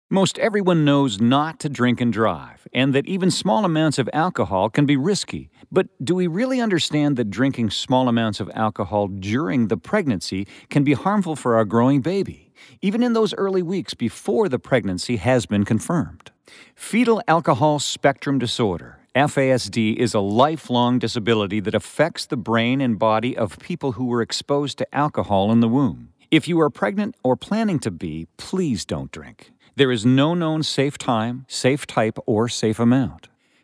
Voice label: Radio Ad